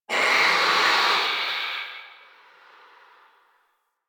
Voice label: Whisper Pain; Enemies; Stalker Acolyte